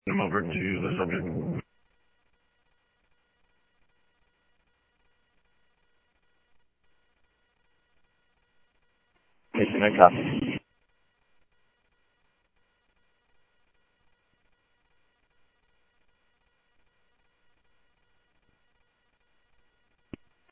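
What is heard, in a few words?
Sheriff Lake Patrol, scanner audio; MCSO Lakes 1 SheriffLakePatro; ThompsonPeakScot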